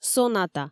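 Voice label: "соната", Komi, female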